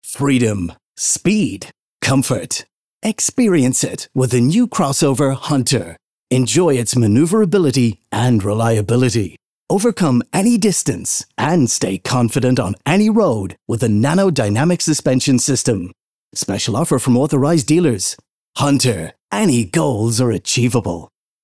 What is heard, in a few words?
english irish others, Irish English; Autres / Vidéos d'entreprise